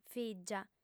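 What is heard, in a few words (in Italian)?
"fìgia", lingua sarda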